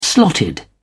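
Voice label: "slotted", British English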